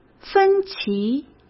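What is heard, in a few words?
"分歧", Mandarin Chinese; hsk; chinese flashcards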